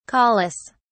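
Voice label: "caulis", British English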